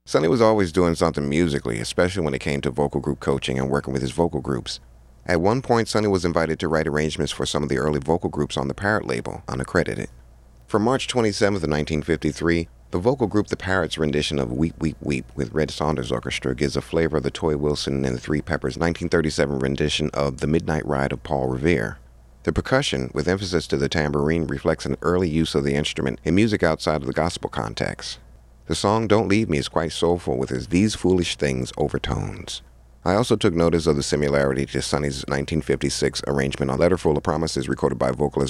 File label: narration